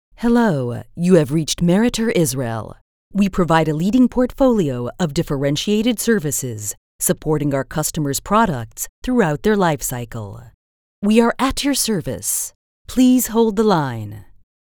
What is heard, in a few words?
Formal